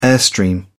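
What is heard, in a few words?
"airstream", British English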